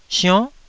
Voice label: "sionn9", Taiwanese syllable